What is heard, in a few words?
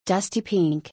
"Dusty Pink", English